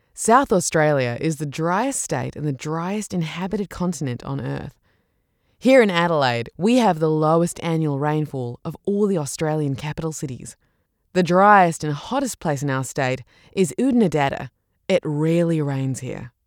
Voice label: english australian real estate